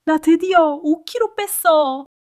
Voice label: excitement